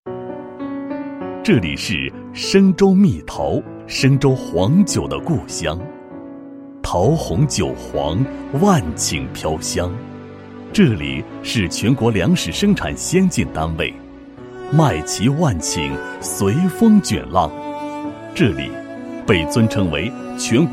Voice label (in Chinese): 男 专题 旅游解说; 深州旅游解说